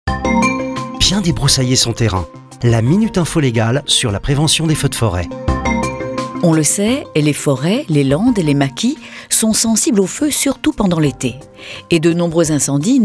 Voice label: Podcast Publi reportage; Debrousaillement

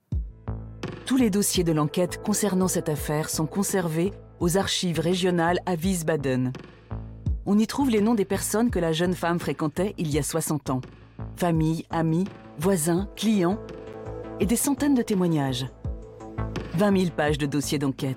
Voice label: docu meurtre non élucidé; Arte

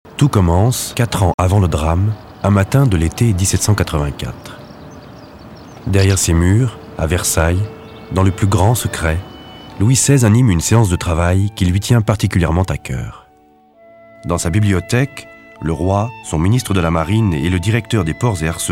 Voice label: DOCU Conteur Historique; THALASSA

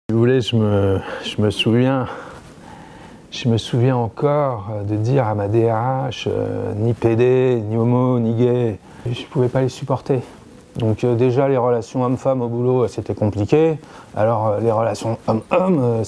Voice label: Fausse PUB TV Temoignage; Homophobiol

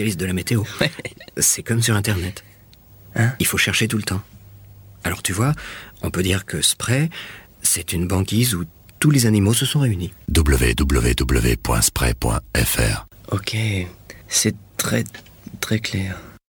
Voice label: Pub comedie dialogue; SPRAY

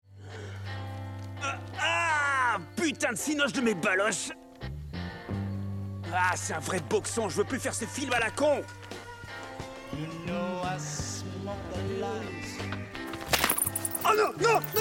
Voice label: DOUBLAGE; TONERRE SOUS LES TROPIQUES; JACK BLACK

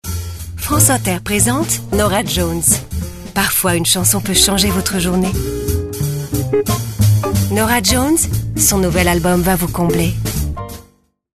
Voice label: Spot Radio Chaleureux invitant; France Inter

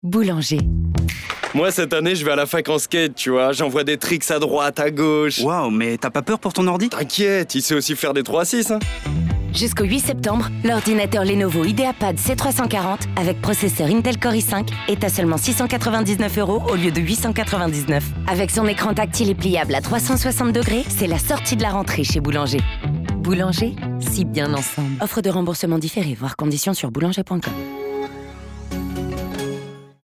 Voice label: radio; Lenovo

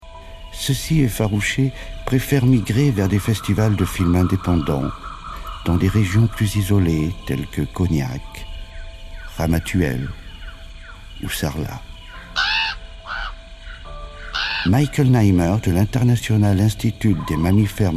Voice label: Documentaire radio

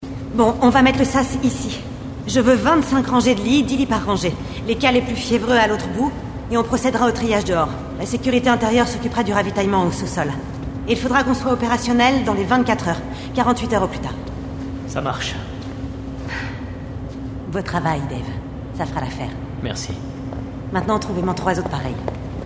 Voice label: Contagion Explicative; KWinslet

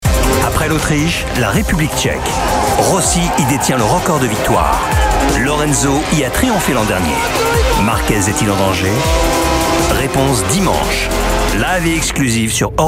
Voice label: Habillage TV; voix-off; Eurosport 2

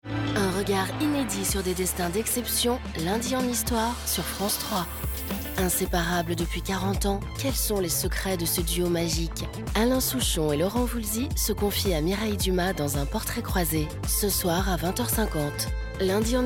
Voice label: Spot; france3

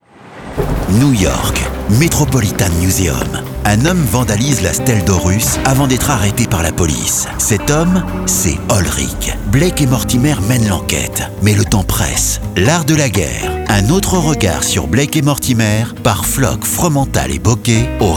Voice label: SPOT; BD; BLAKE ET MORTIMER

